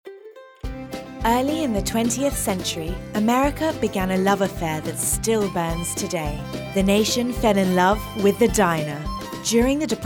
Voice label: Narrative